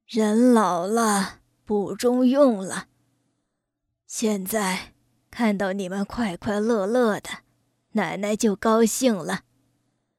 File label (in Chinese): 女, 老奶奶